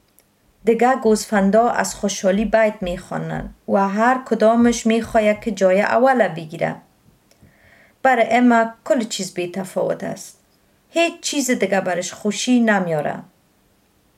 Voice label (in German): dari